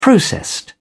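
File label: "processed", English, British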